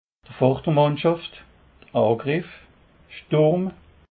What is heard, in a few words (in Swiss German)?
"vordermannschaft", alsace